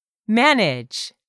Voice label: "MANAGE", english; 3000 words